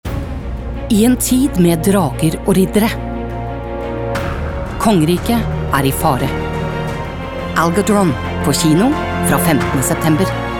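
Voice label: Norwegian movie trailer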